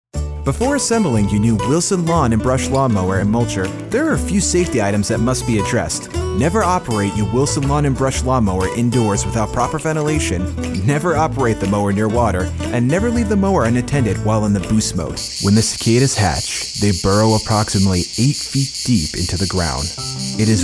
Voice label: Narration